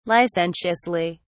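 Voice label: "licentiously", British English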